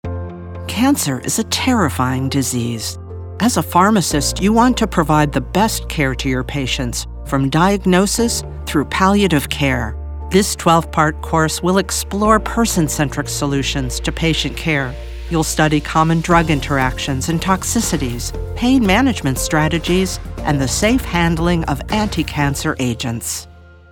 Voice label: eLearning Pharmacists

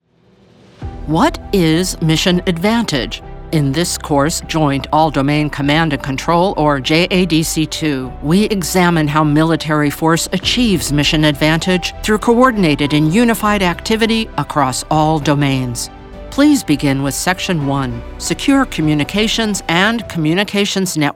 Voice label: eLearning military; Joining Forces

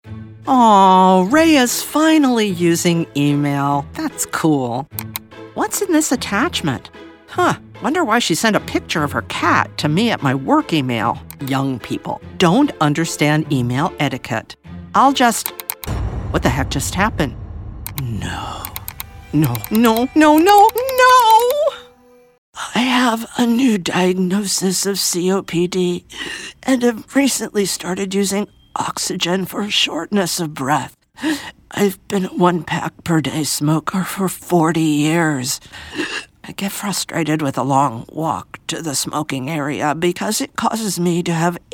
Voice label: Voice Actor ELearning Character

